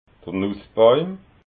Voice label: "nussbauim", alsace

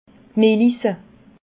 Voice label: "melisse", alsace

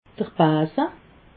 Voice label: "base", alsace

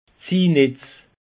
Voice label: "ziehnetz", alsace; peche

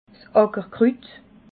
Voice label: "ackerkrut", alsace

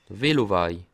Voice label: "velowaj", Alsace

